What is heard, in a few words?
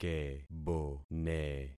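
"kebone", concatenated syllables; Affixing experiment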